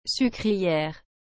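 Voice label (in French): "sucrière", French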